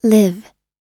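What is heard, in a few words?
"live", English; oxford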